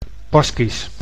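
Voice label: "Baskisch", Dutch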